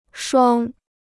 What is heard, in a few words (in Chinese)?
"双", Chinese